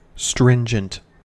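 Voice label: "stringent" English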